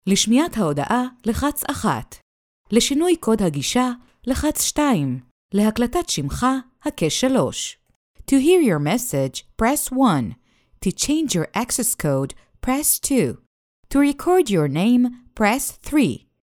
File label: IVR HEB ENG